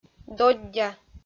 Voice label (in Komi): "доддя", Komi